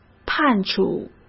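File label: "判处", Mandarin; chinese-flashcards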